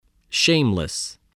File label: "shameless", spelling